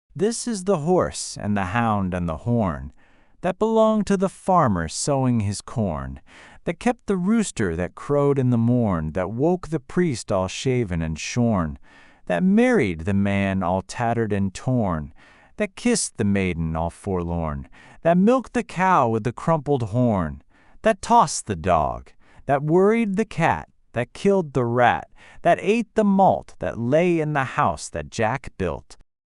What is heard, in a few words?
male us; The House That Jack Built